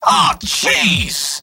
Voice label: scout jeers; mvm